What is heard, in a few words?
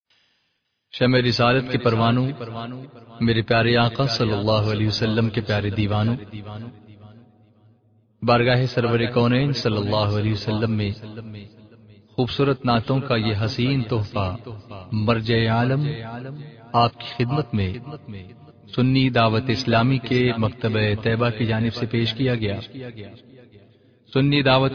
Announcement; naat